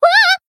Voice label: damaged, jp; tsukiha

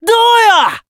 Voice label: growth, jp

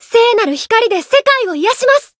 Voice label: variable chant

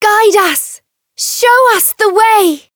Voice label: variable chant; veina

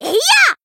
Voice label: attack jp

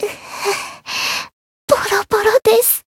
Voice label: win barely, jp; yuna

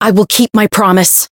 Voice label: chant

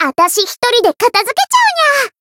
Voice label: Voice encount jp; nekoko

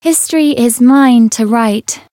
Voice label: variable chant

